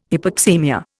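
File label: "hypoxaemia", Indian English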